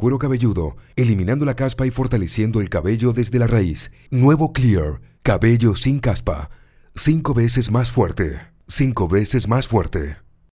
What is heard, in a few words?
Woman locu